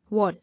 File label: "Wall", English